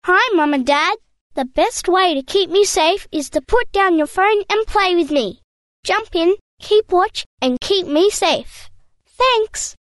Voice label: Male Child Put down your phone, public pool; keep watch; public pool partners queensland